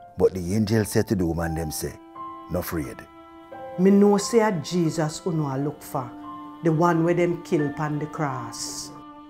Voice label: verse, jamaican creole